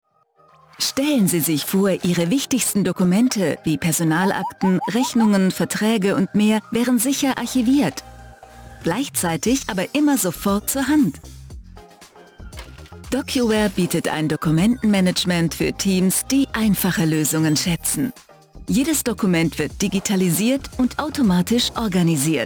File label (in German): Erklarfilm; DocuWare